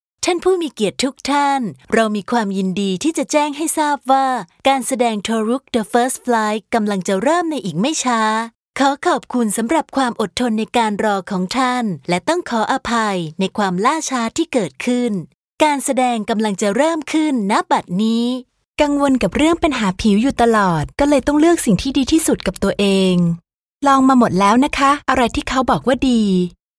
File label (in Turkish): Tayca Kadin, tayca seslendirme; kadin sesler